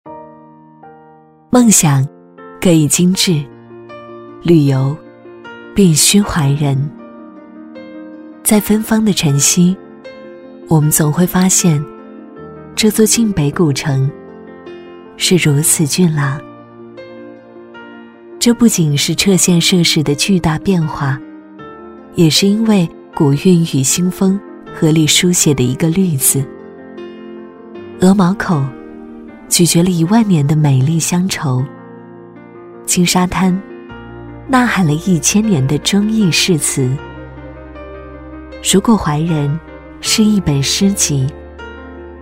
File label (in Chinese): 宣传片 旅游 讲述; 怀仁旅游; 女